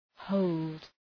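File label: "hold", English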